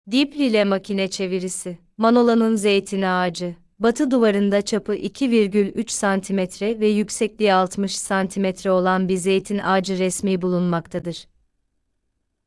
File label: turkish